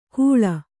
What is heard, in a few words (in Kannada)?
"ಖೂಳ", Kannada